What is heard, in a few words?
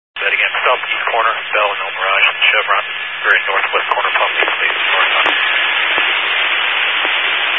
Highway Patrol, Phoenix Metro West Area, scanner